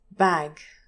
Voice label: "bag", English pronunciation, trap vowel